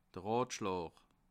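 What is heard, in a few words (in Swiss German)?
"rotschlaa", Alsatian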